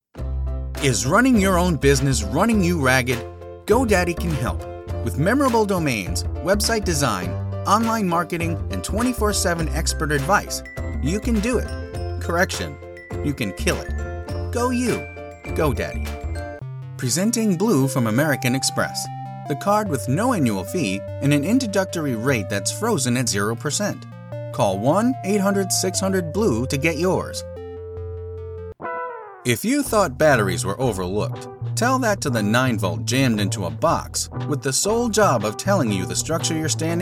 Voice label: commercial